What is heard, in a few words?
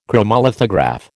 "chromolithograph", American